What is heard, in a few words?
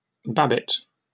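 "babbitt", British English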